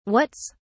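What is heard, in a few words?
"whats", British English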